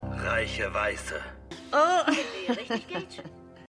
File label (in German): TV Sprecher Frau TV; Stimmproben; Everythings Trash